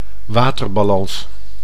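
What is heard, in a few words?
"waterbalans", Dutch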